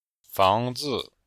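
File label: "fang2zi5", Mandarin Chinese; Cours de Chinois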